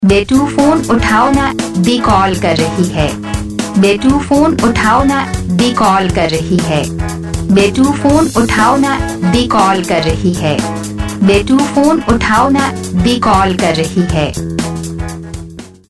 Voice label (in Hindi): phone uthao n di call kar rahi hai saying, Ringtones